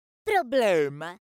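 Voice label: problema distorted, Italian; Speechling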